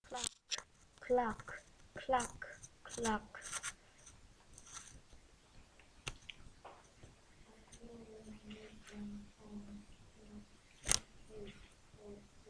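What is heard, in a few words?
"clock", spoken word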